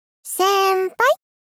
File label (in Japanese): 少女×高音域; せ～んばい?